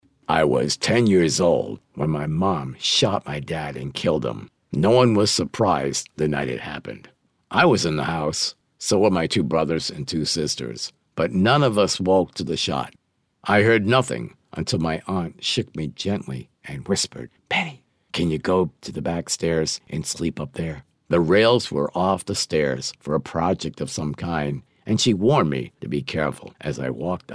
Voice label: Audiobook

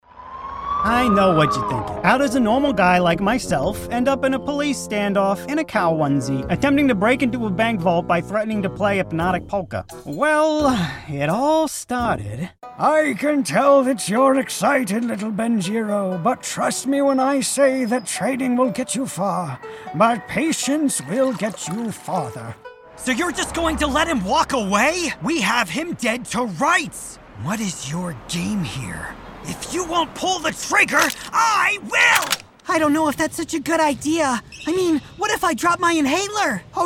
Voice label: Character

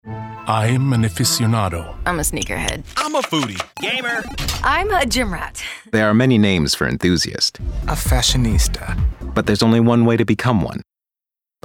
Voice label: Radio Spot; Lexus; “I’m an aficionado”

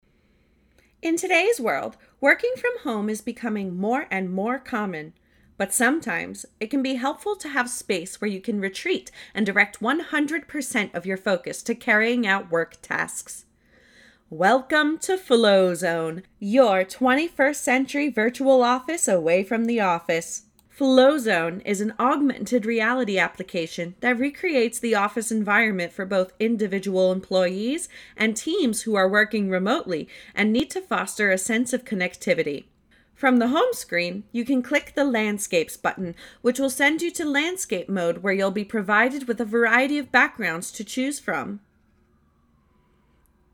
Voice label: Product Demonstration

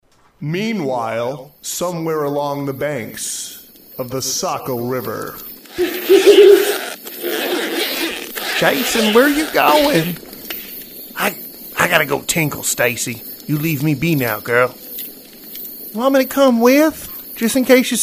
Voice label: sketch, characters

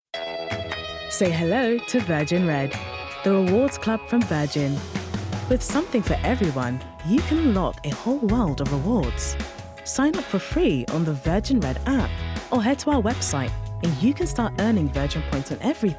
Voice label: Corporate; Virgin Red

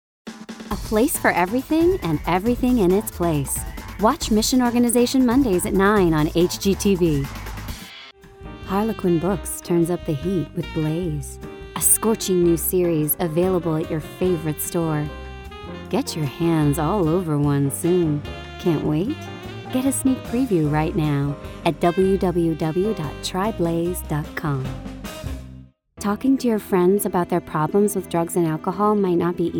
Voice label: Promo

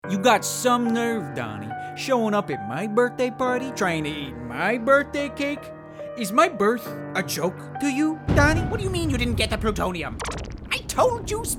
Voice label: ANIMATION VO

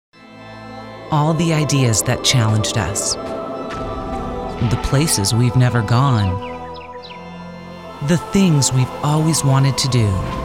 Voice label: commercial VO

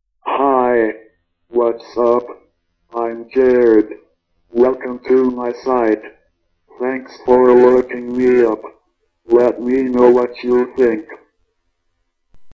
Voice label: web greeting

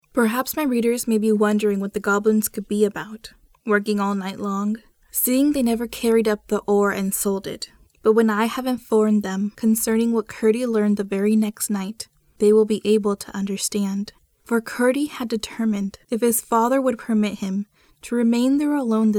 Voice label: Audiobook

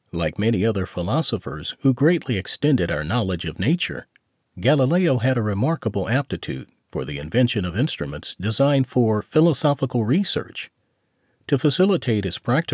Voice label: Narration

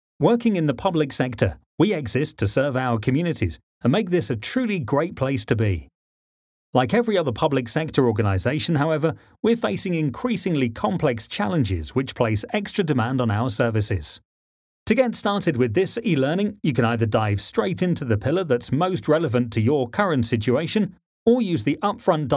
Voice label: eLearning

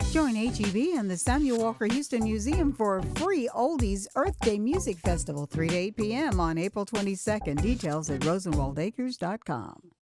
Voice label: PSA; Earth Day Fest

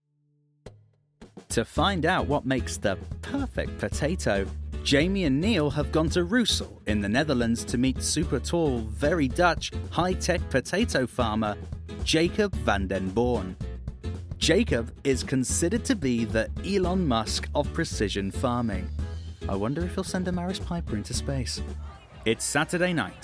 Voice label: TV Narration

